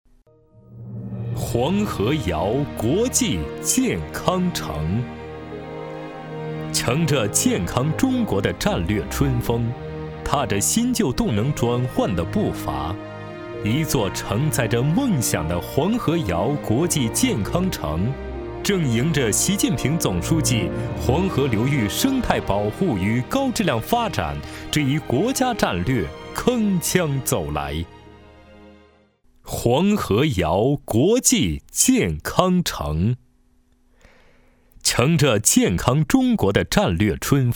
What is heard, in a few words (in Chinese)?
男 企业专题 大气; 黄河谣国际健康城